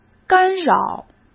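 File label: "干扰", Mandarin Chinese; hsk; chinese-flashcards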